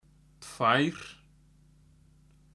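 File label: "tvær", Icelandic; tolur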